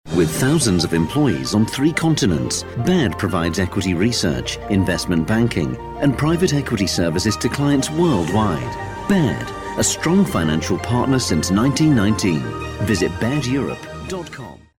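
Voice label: English, British, male, corporate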